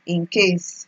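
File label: "incase", Nigerian Pidgin, female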